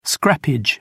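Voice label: "scrappage", British English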